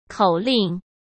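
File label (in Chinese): "口令", Mandarin Chinese; hsk; chinese flashcards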